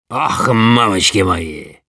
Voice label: negative vocalization ru; Demoman

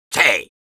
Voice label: positive vocalization, es; Soldier

